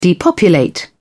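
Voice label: "depopulate", English, British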